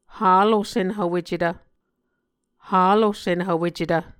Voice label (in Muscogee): "halo'senhvwecetv", Muscogee